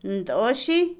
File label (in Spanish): Otomí, mujer; cena; Comida; INALI